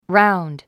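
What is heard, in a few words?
"round", English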